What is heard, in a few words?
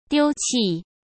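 "丢弃", Mandarin Chinese; chinese-flashcards; hsk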